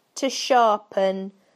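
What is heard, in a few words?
"to sharpen", British English; PictureDictionary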